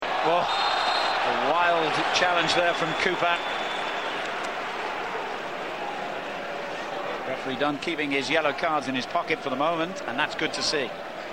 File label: Commentator Audio; Arsenal v Middlesbrough